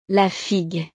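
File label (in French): "la figue", French; frutas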